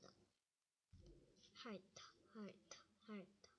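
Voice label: "lick", spoken word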